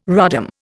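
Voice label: "rodham", Indian English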